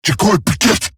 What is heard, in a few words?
Surprised Eximus Corpus Crewman; Warframe; Battle Barks